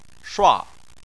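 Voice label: "shua" tone 4, Chinese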